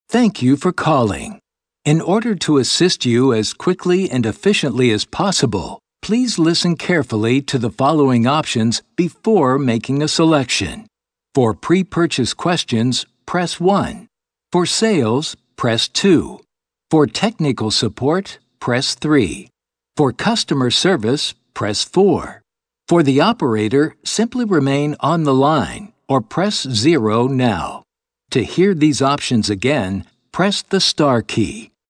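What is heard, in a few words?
male ivr, Voice Prompts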